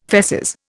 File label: "feces", Indian